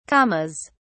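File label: "commas", English, British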